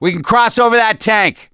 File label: misc directional, voice; gambler